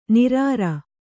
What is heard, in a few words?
"ನಿರಾರ", Kannada